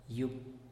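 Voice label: "yub", Teotitlán del Valle Zapotec; pronto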